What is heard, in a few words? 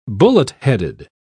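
"bullet-headed", British English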